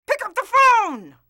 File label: "pick up the phone", voices